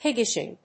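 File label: "piggish", English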